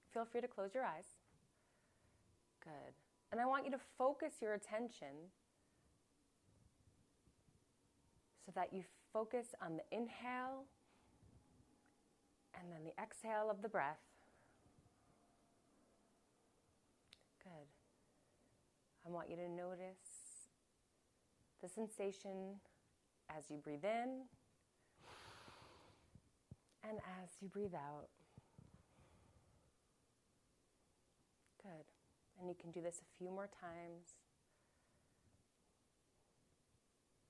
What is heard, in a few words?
Guided Meditation Audio